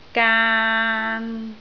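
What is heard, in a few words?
"gaan3", Cantonese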